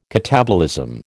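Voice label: "catabolism", American English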